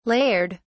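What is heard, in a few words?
"layered", British English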